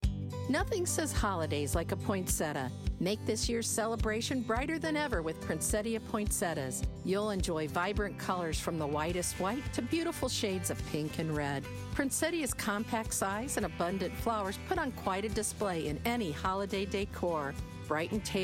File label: radio spot; Customizable Princettia